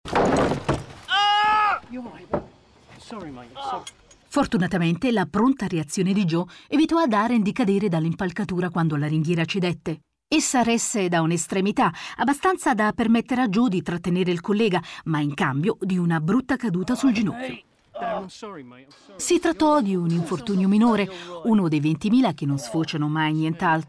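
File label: it, Italian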